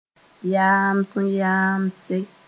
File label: "yaams-yaamse", Moore; kind of greeting (bereavement)